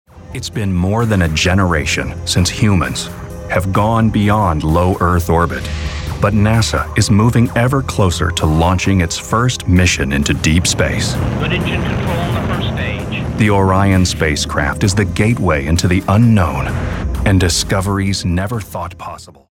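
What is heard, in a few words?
American English, male, narration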